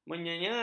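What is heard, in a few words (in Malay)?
"menyenangi", Malay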